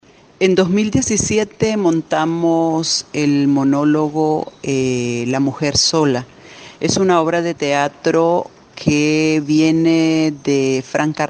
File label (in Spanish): Monologo